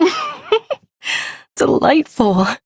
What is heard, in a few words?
Ambient Female, Dialog; Duviri